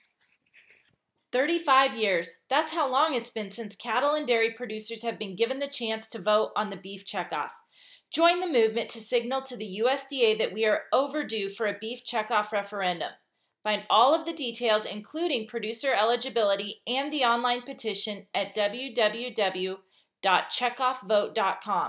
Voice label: Checkoff Radio